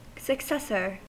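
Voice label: "successor", US English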